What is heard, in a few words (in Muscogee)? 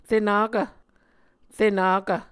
"renakv", Muscogee